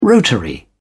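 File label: "rotary", British English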